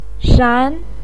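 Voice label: "shan", Mandarin Chinese, second tone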